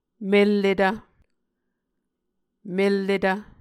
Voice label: "melletv", Muscogee

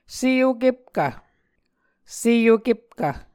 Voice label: "seokepkv", Muscogee

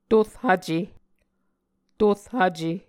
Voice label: "tor-hvce", muscogee

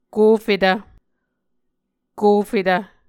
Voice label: "kofetv", Muscogee

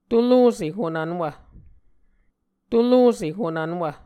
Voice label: "tolose honvnwv", Muscogee

